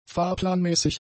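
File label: "fahrplanmäßig", German; adjectives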